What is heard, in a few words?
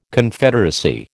"Confederacy", American